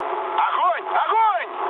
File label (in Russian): attack position, mood low, speech ru; War Thunder